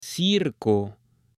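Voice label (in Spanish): "CIRCO", male; LUGARES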